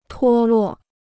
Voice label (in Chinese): "脱落", Chinese